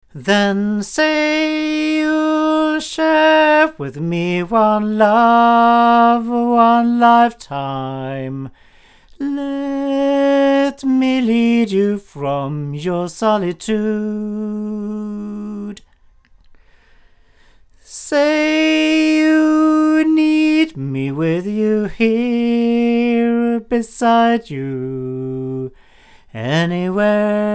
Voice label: male; Clarion cardioid; Lauten

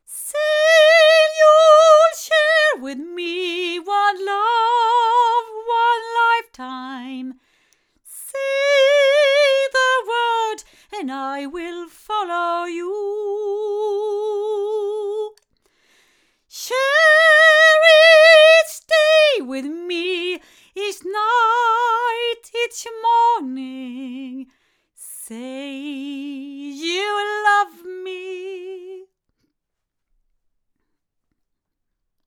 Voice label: TL66 female, microphones recordings; Pearl

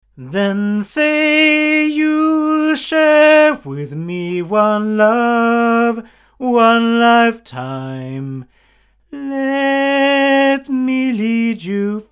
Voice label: omni male; Studio Projects LSD2

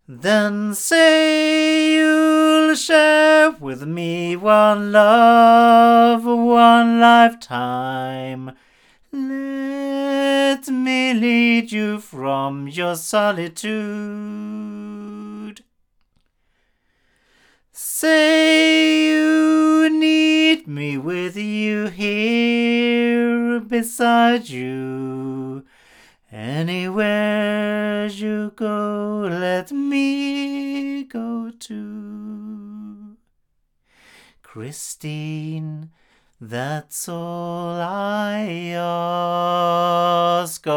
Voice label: LineAudio SM6i omni male

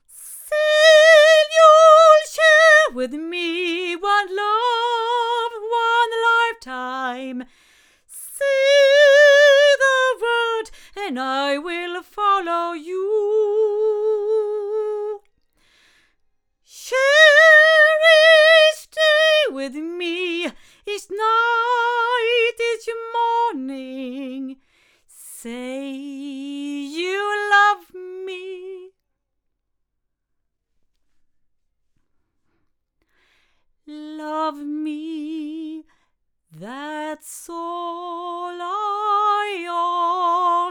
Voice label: CV-28 hypercardioid female; Avant

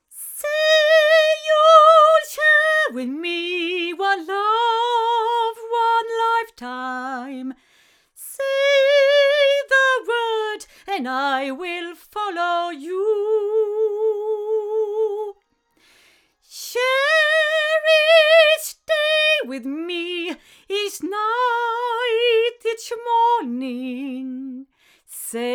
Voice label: AudioTechnica AT2035 female

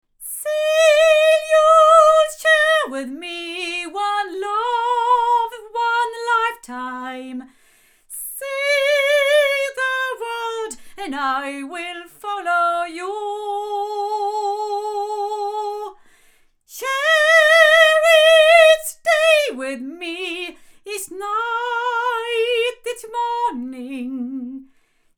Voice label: sE Z5600a II omni female; se electronics

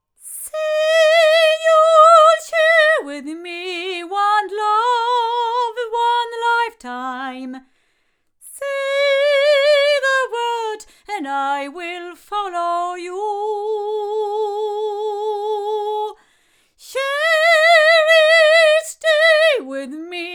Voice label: Sontronics STC-1 female

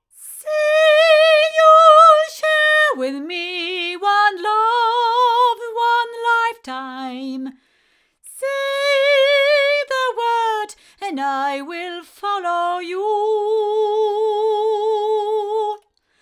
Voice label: Oktava MK219 female

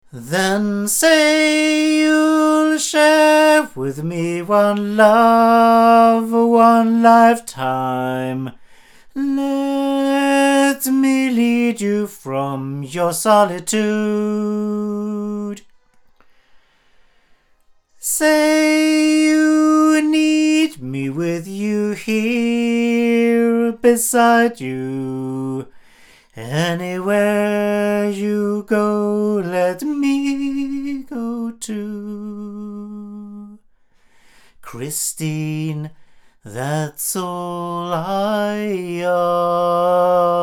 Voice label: Reactor omni male; BLUE

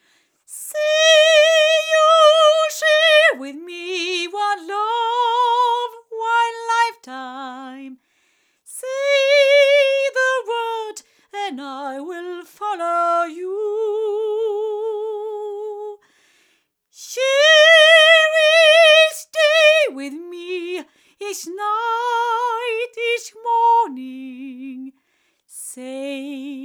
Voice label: female, microphones, Milab LC25

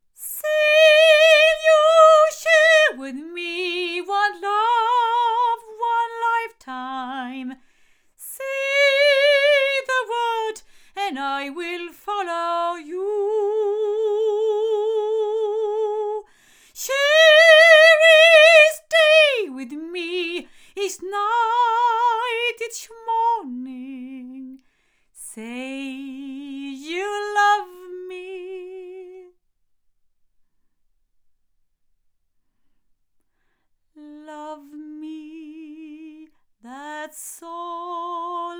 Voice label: Earthworks M30 female

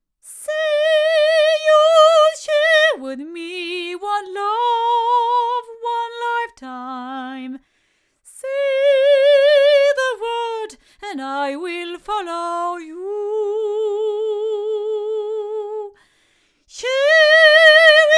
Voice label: A51TL hypercardioid female; ADK

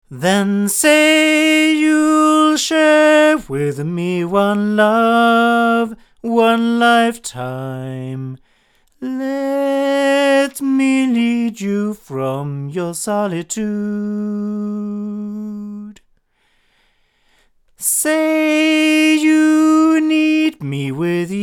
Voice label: A48 cardioid male; microphones; ADK

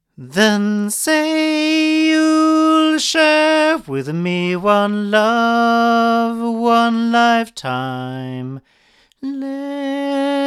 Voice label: male; Milab D-37

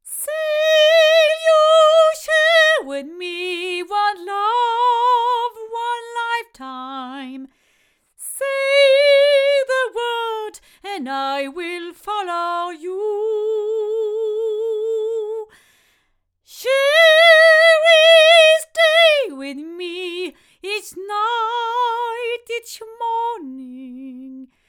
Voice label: Rode NT2 cardioid female

